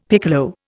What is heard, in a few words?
"piccolo", Indian; Dictionary